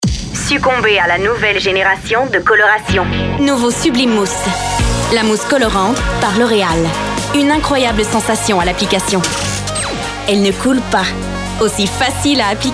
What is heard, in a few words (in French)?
publicite